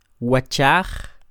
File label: "wachaj", Quiché; cara; face; INALI; UTexas; CHQETA